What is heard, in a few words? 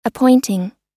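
"appointing", US English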